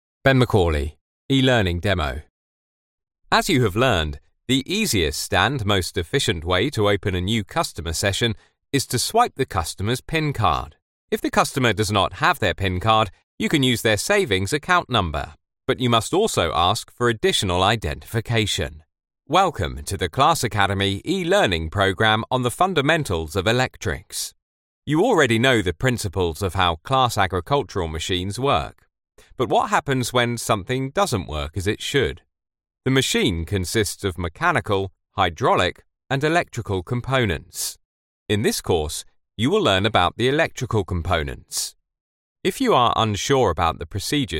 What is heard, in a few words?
ELEARNING